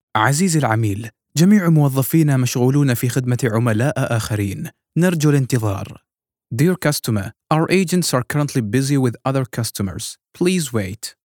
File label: IVR; Arabic